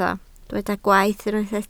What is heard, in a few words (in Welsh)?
"gwaeth", Welsh